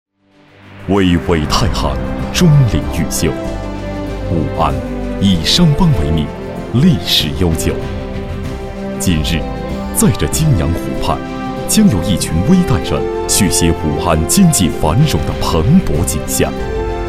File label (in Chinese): 专题 政府 大气, 男国; 无锡市